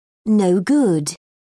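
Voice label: "no-good", British English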